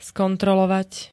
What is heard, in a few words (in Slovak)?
"skontrolovať", Slovenské